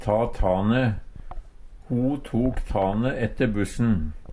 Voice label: ta tane, Numedalsmål; leggje på sprang; Uttrykk; Ho tok tane ette bussen.

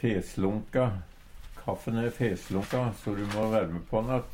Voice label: "feslunka", Numedalsmål; Kaffin e feslunka so ru må værme pån att.

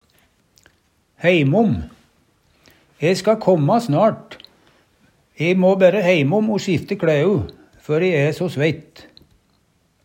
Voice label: heimom, Numedalsmål; E ska kåmmå snart, må berre heimom o sjifte kLæu, før e æ so sveitt.

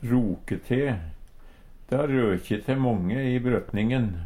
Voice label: roke te, Numedalsmål

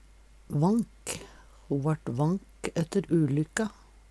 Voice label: vank, Numedalsmål; Han va dug'le vånk ette ei sjoteulykke i ongdommen.; Adjektiv

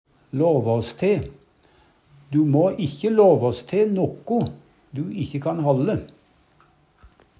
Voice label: lavas te, Numedalsmål; love, si at ein vil; Du må ikkje låvås te nokko du ikkje kan halde.

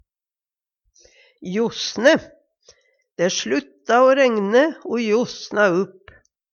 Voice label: josne, Numedalsmål

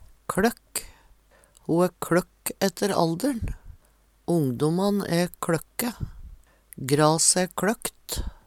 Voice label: kLøkk, Numedalsmål; Ho æ kLøkk etter alder`n. Ongdomman æ kLøkke. Grase æ kLøkt.; Adjektiv

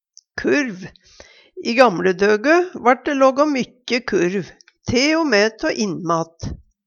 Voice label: kurv, Numedalsmål; Hushald, mat, drikke

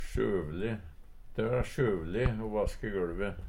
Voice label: sjøvøle, Numedalsmål; De va sjøvøle o vaske gøLve.; Adjektiv

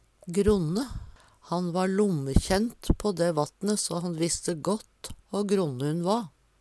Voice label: gronne, Numedalsmål

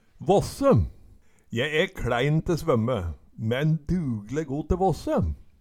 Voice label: vasse, Numedalsmål; Je e kLæin te svømme, men dugeli go te våsse

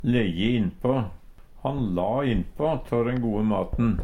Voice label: lejje innpa, Numedalsmål; Uttrykk

